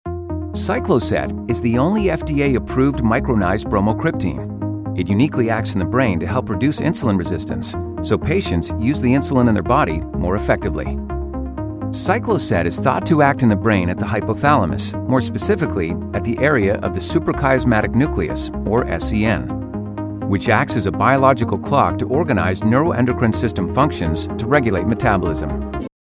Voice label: Medical Narration; Cycloset